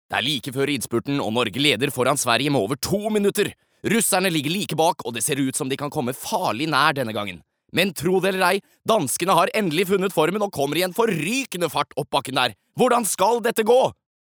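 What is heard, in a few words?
Norwegian dub commentator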